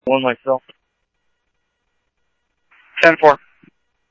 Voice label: Police, scanner audio